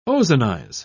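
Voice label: "ozonize", British English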